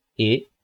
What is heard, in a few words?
Spanish E